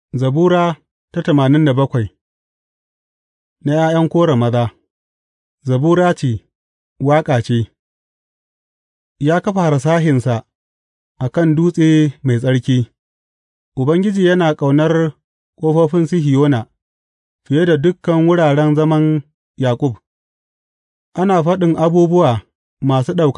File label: hausa bible drama, psalms; hausa bsn nt drama